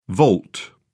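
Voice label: "volt", British English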